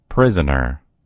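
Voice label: "prisoner", American English; gcompris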